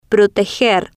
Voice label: "proteger", Spanish

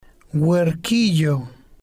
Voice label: "huerquillo", spanish

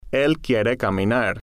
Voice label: "el quiere caminar", Spanish

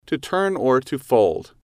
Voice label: "to turn fold", English

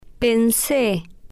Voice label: "pense", spanish

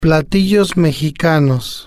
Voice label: "platillos Mexicanos", spanish

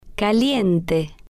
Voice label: "caliente", spanish

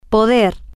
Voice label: "poder", Spanish

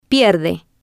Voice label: "pierde", Spanish

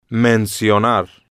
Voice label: "mencionar", Spanish

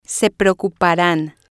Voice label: "se preocuparan", spanish

